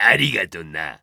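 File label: victory, Japanese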